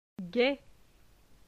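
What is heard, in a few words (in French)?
"Gai", French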